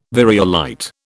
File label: "variolite", British